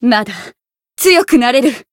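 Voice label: Voice Japanese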